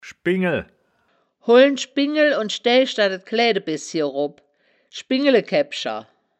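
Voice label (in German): "schbingel", wittlicher woerterbuch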